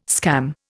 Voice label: "scam", Indian